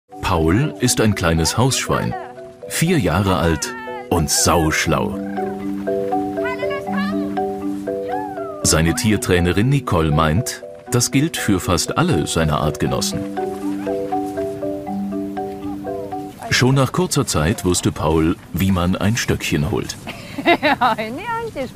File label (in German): Doku lustig